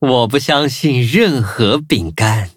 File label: levelup zh-hant; Cookie Run